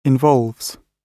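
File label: "involves", British English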